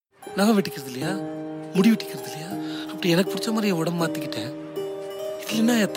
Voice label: promo dialouge ringtone; super deluxe; vijay sethupathi